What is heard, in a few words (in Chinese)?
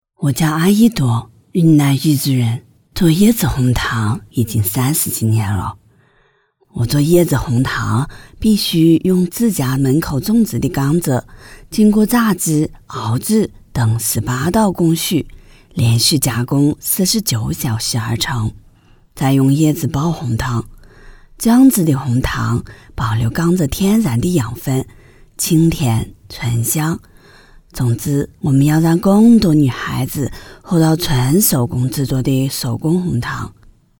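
云南 女 云南彝族口音样音女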